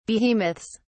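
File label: "behemoths", en, uk British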